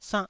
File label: "sannh4", taiwanese syllable